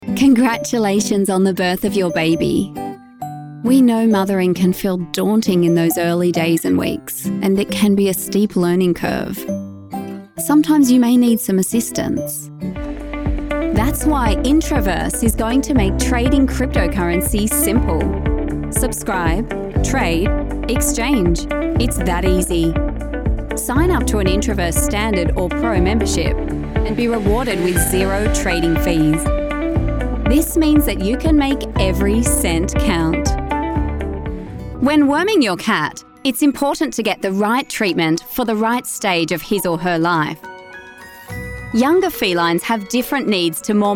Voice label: Corporate; Reel